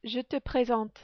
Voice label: "je te presente", French

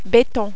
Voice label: "beton", French

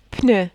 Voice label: "pneu", French

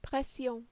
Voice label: "pression", French

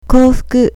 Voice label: "koufuku", Japanese

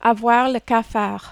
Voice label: "avoir le cafard", French

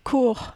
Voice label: "cours", French

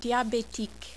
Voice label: "diabetique", French

